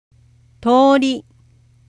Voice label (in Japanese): "toori", nouns traffic; kanji nouns traffic